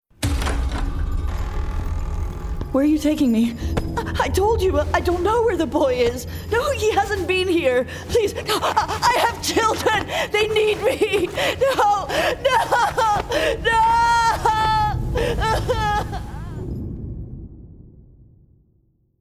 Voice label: Gaming Villager

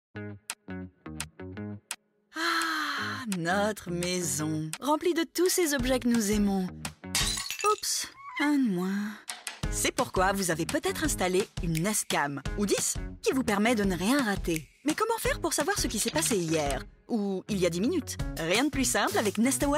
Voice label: French Web Commercial; Français; ironique; Google

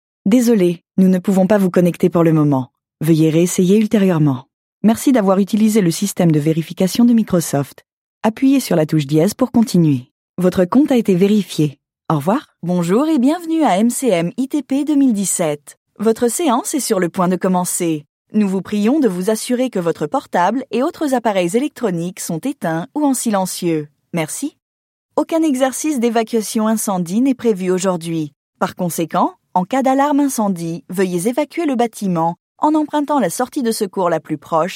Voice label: French announcements

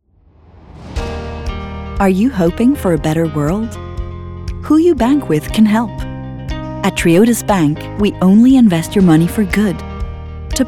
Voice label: International Eng, voiceover commercial; Triodos

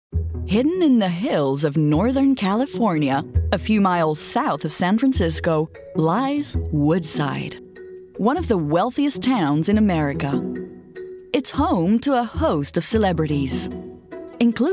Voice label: doco American

